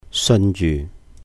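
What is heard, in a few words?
"seun yuh", Cantonese; reputation; 信譽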